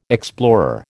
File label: "explorer", American